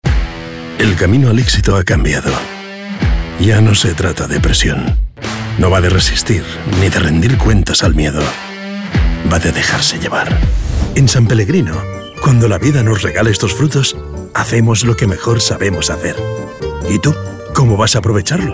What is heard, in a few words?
spanish commercial